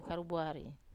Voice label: "karubuari", Wamesa

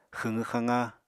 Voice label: "xwqw xaqa", Apatani

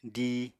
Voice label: "díi", Apatani

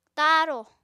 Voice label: "taarò", Apatani

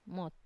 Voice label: "mot", fog, Wamesa

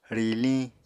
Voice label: "riliñ", Apatani

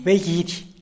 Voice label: "begidy" butterfly; animals

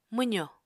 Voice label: "mwzò", Apatani

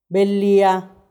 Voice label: "belhía", cave, kick, macuiltianguis

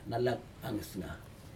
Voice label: plantain, nalak nagesega, Aneityum

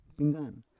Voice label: "penggar", Roon

